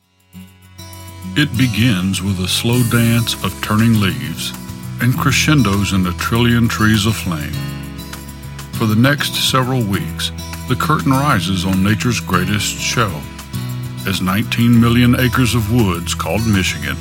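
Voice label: English US, narration; GoLocalise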